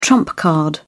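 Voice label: "trump card", British English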